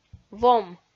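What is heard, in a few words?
"вом", Komi, female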